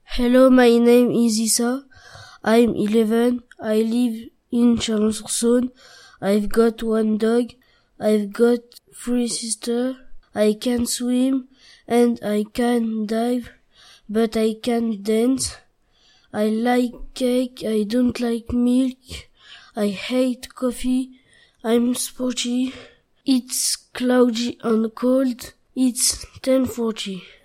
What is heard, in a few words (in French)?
anglais